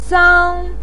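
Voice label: "sang", Mandarin pinyin, first tone; 丧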